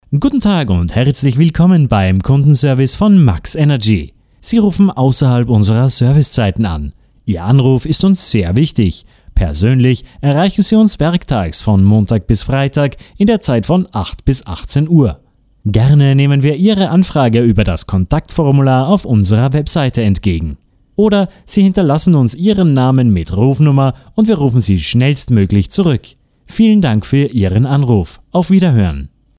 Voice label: German